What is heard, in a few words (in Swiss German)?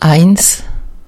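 "eins", Alsatian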